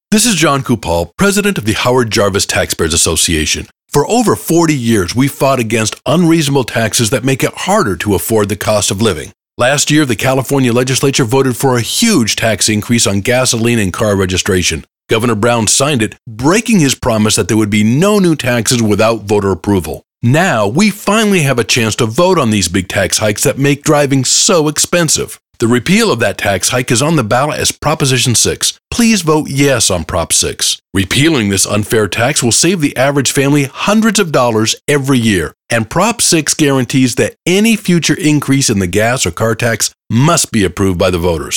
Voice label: Radio; HJTA; Vote Yes on Prop 6